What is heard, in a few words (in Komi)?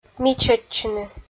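"мичӧдчыны", Komi, female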